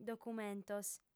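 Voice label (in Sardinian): "documentos", female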